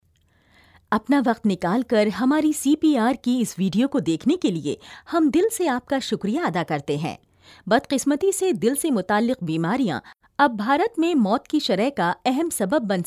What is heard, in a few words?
Urdu